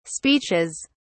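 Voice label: "speeches", English, British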